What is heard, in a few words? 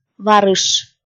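"варыш", Komi-Zyrian, female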